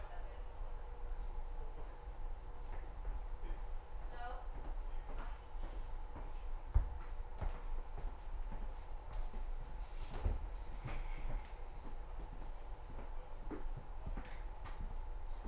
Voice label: Harass French